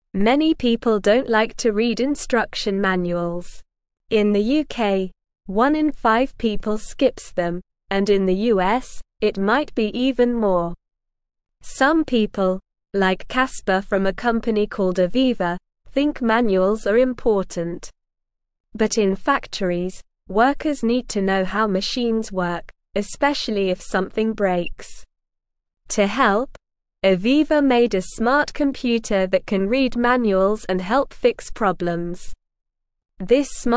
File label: English Beginner SLOW Reading; Smart Computers Help People Understand Machines Better